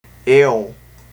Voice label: "aél", Tigall